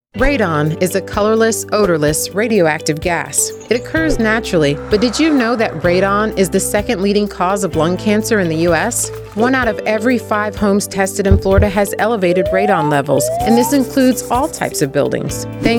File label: radio psa english